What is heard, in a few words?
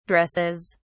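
"dresses", British English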